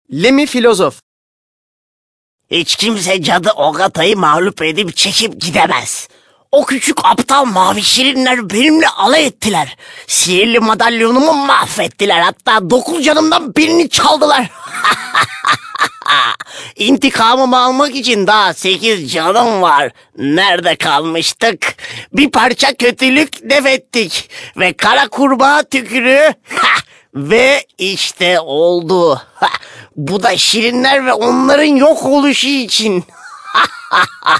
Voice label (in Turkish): animasyon